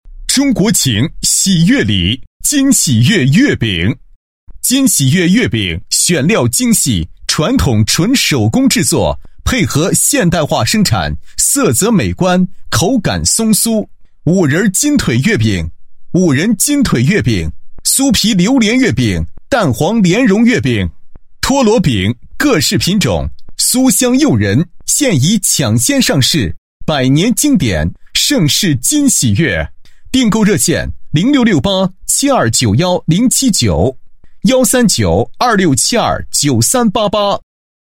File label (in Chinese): 男 广告 月饼广告